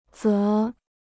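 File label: "则", Chinese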